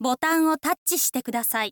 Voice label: info lady bottonwo